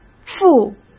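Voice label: "副", Mandarin Chinese; hsk; chinese-flashcards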